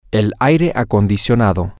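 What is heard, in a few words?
air Conditioning, Spanish, male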